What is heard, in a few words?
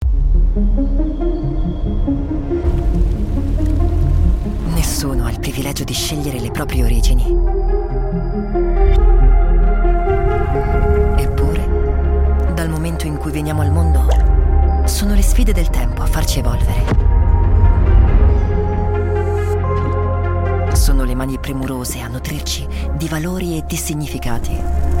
italian documentaries, voice actors